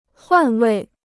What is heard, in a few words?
"换位", Chinese